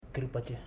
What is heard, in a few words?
"eight", Ipili